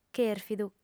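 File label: "chèrfidu", female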